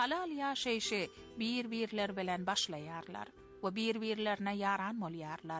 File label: Turkmen